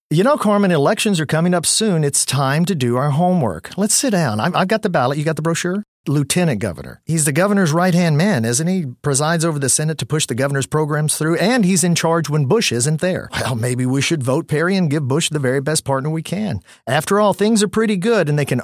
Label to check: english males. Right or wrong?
Right